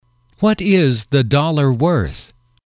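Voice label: what is the dollar worth, English, male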